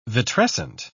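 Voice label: "vitrescent", English, British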